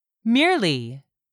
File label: "merely", English; 3000 words